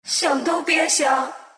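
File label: deny, Chinese; Dota 2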